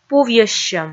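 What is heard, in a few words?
"пувйӧссьӧм", Komi, female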